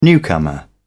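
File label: "newcomer", British English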